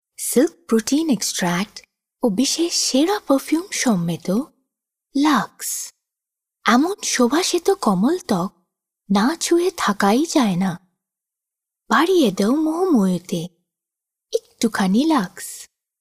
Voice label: locutora bengali voiceover